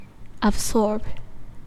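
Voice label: "absorb", Chinese speaker of English, female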